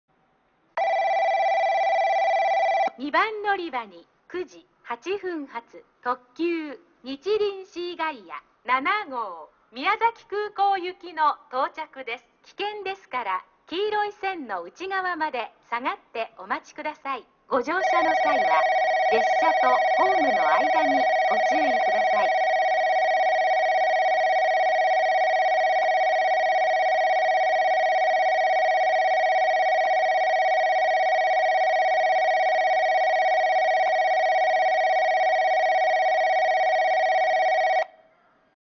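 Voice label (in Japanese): 2番のりば接近放送, 中津駅; にちりんシーガイア7号 宮崎空港行き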